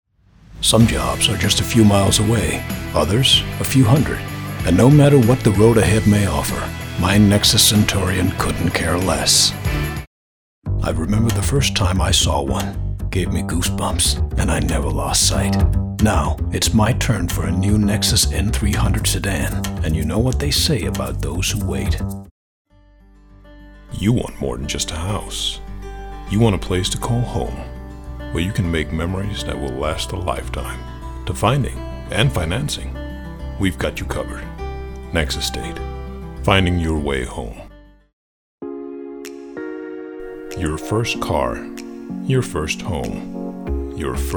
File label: ENG Promos